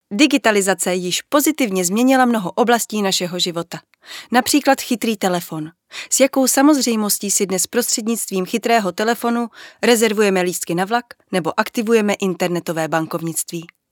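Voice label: Czech, female, narration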